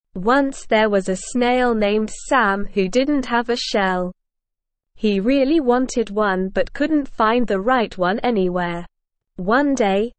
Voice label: ESL Short Stories for Kids Beginner SLOW Reading; How the Snail Found His Shell

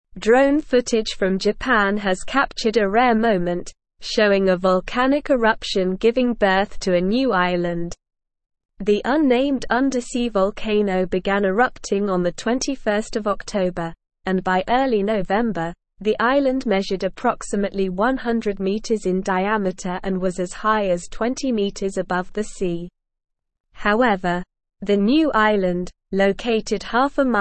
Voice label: English Newsroom Advanced SLOW Reading; Drone Captures Rare Moment New Island Emerges in Japan

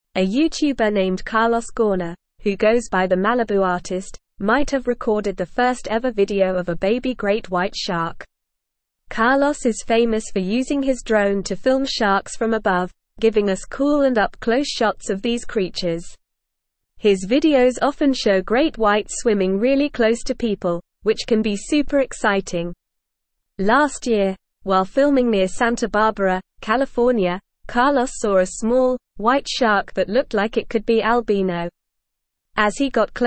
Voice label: English NORMAL Reading; English Newsroom; TheMalibuArtist captures possible birth of great

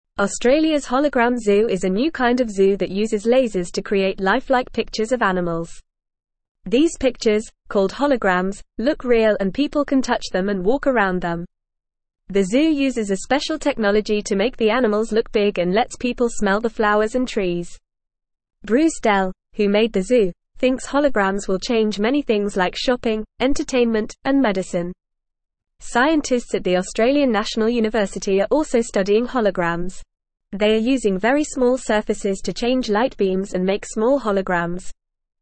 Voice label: English Newsroom Lower Intermediate FAST Reading; Australias New Zoo Pretend Animals Made with Light

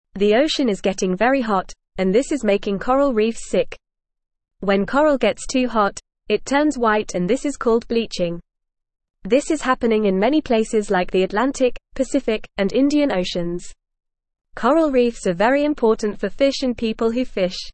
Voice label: English FAST Reading; Hot Ocean is Making Coral Reefs Sick

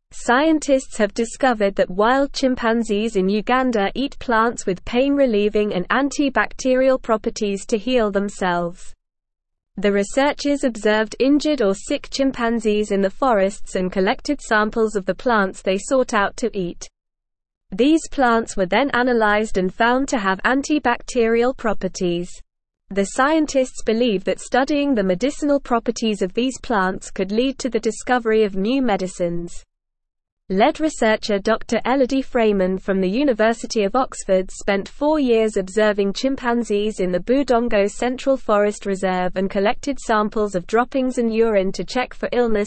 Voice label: English NORMAL Reading; Wild Chimpanzees in Uganda Use Plants with Medicinal Proper

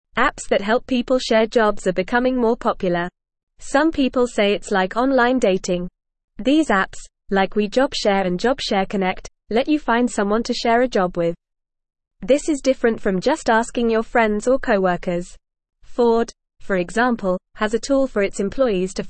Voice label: Upper Intermediate FAST Reading, English; English Newsroom; The Rise of Job Sharing Apps Increasing Flexibility

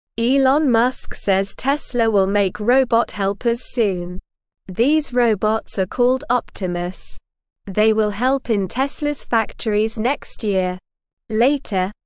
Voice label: English Beginner SLOW Reading; Teslas New Robot Helpers Will Come Soon

